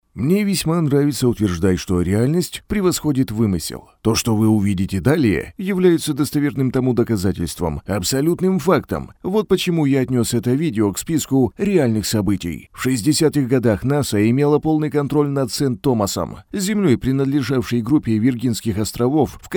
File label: russian documentaries